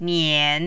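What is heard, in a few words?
"nian", Mandarin, third tone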